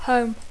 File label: "home", English